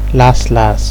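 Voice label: "laslas", Nigerian Pidgin, male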